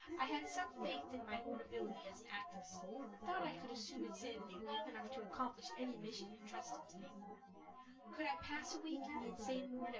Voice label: female synth conv 250 ms 5 cm

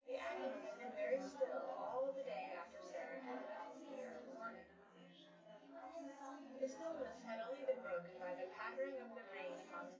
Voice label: female

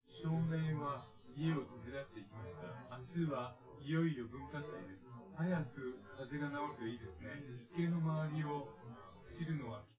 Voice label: male synth conv; SiSEC10; underdetermined

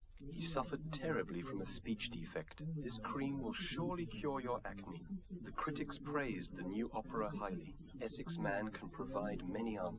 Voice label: male, liverec, src

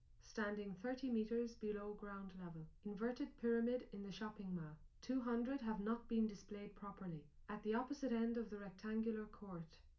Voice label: female liverec sim

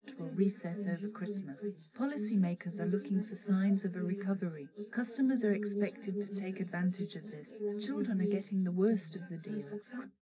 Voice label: female srec 130ms 20cm sim; SiSEC11; underdetermined

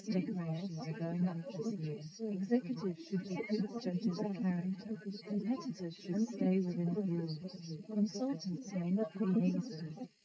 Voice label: female srec 130ms 20cm sim

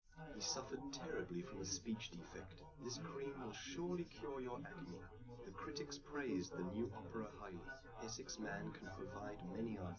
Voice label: male live rec

